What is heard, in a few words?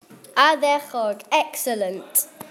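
"ardderchog" excellent, Welsh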